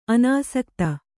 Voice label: "ಅನಾಸಕ್ತೆ", Kannada

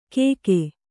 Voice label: "ಕೇಕೇ", Kannada

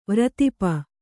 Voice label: "ವ್ರತಿಪ", kannada

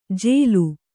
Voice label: "ಜೇಲು", Kannada

